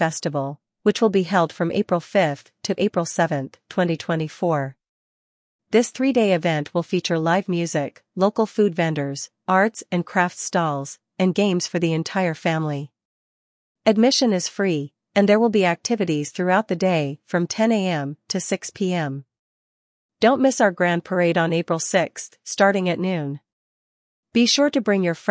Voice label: Announcer; celpip practice test; listening practice test